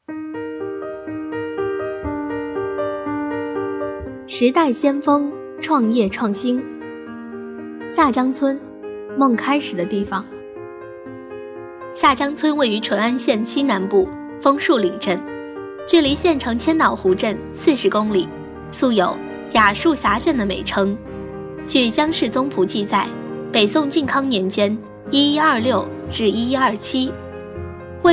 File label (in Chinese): 创业创新 讲述类试音; 时代先锋